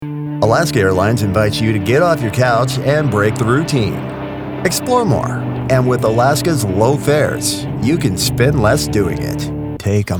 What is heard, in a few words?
COMMERCIAL; VO DEMO; non-union male voiceover talent based in Las Vegas, Nevada